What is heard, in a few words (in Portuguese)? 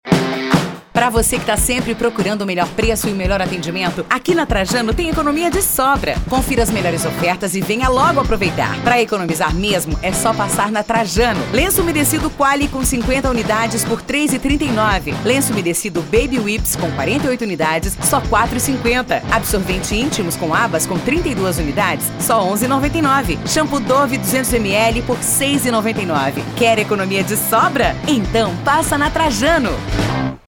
Spot Mulher; Trajano